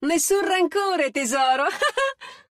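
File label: Italian; 回声（守望先锋2）; 终极技能; 意大利语; Nessun rancore, tesoro!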